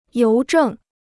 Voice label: "邮政", Chinese